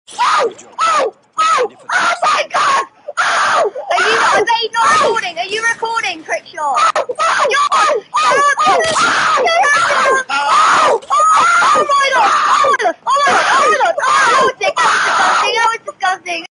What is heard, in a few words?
OH OH MY GOD (Trickshot Reaction); MLG Sound Effects